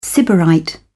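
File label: "sybarite", British English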